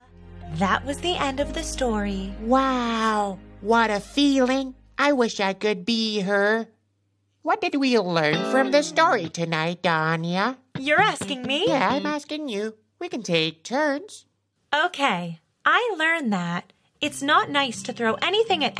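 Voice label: ENUS Child character, American English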